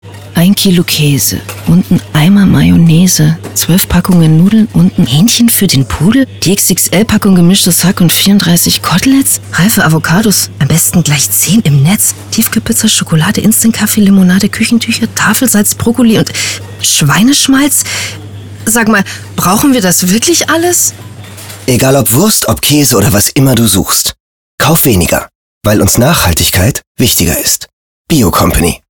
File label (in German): Radiospot Erwachsen; Bio Company